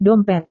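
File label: "dompet", Indonesian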